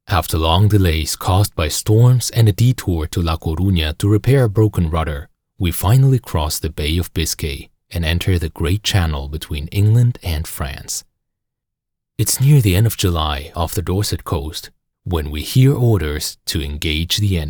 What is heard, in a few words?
english international audiobooks, german voice actor